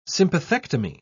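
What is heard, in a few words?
"sympathectomy", English, British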